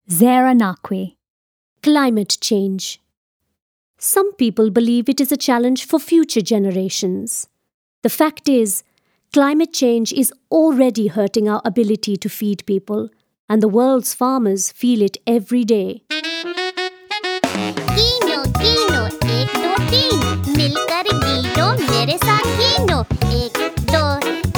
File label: Indian accent